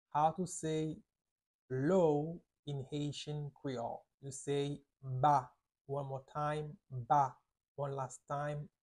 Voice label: How to say Low in Haitian Creole Ba pronunciation by a native Haitian tutor